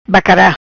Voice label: "Bacara", Macanese

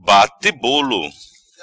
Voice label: "Bate Bolo", Macanese; confeccionar bolos; to bake cakes

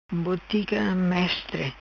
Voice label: "Botica Mestre", Macanese

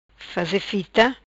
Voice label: "Fita Faze", Macanese

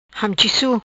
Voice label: "Hamchisu", patua

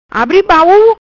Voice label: "Baul Abri", Macanese; open the trunk

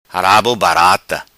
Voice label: "Rabobarata", Macanese

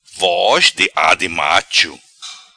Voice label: Voz Di Ade Macho; PATUÁ

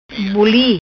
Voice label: "Buli", Macanese

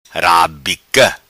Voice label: "Rabica", Macanese

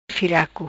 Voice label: "Firaco", Macanese

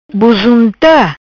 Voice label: "Buzunta", Macanese

